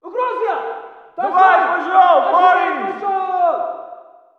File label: walla rus mountain battle; Call of Duty Modern Warfare